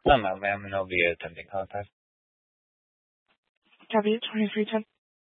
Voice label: Police 1 Dispatch